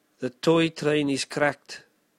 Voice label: toy train is cracked, South African English

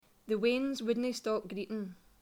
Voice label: Scots, accents of english

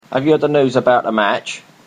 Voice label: Cockney Have you heard the news; accents of english

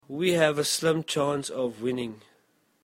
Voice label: South African English

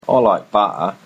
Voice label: "I like butter", Cockney; accents of english

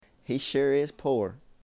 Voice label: Southern American English, He sure is poor.; He is definitely poor.

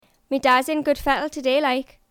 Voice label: Geordie, accents of english

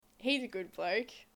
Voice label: Australian English, He's a good bloke.; He's a nice man.

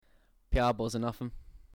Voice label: scouse

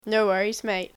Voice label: Australian English, No worries, mate.; No problem, my friend.

